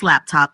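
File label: "Laptop", pronunciation; school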